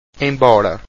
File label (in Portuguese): "embora", Portuguese